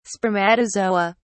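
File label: "spermatozoa", British English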